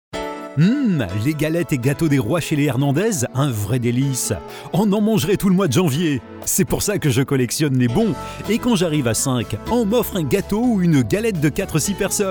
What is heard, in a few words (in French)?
PUB VOIX OFF